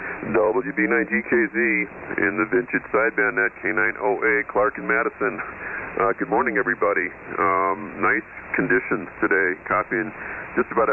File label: Yaesu FT-101B & Stock Mic; Madison,Wi.